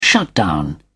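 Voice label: "shutdown", British English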